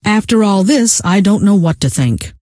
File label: what to think, scientist female